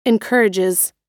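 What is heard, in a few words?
"encourages", American English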